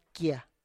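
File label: "kie", Tlacochahuaya Zapotec; head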